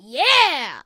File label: Boy Pos; Food Truck Game